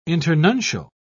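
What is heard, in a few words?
"internuncial", British English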